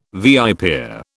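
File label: "viper", British English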